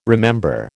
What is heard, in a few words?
"remember", American